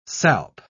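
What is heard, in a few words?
"salp", British English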